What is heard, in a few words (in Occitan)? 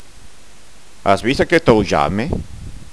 "aujame", gasconha